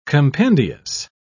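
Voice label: "compendious", British English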